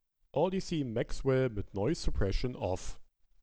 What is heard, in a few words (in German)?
ohne noise suppression, Gaming-Headset; Audeze Maxwell